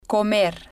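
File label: "comer", spanish, female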